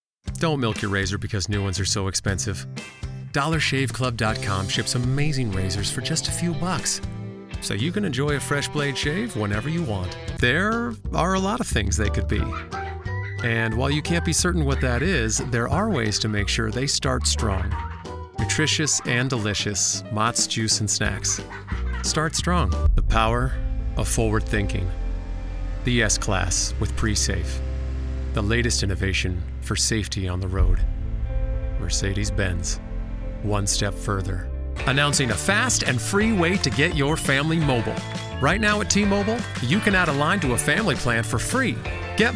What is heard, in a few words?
voice over